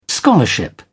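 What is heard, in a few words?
"scholarship", British English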